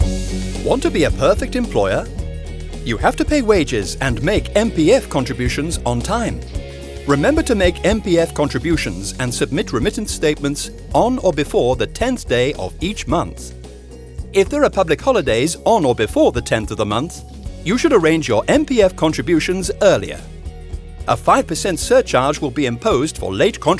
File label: radio advertisements, eng